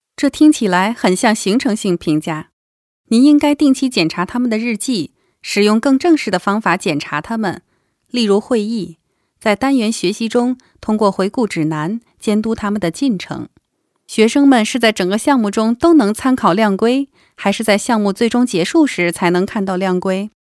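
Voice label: Chinese elearning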